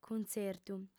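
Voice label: "cuntzertu", female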